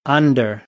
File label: "under", American, English; ɚ - əɹ